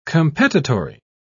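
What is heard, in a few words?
"competitory", British English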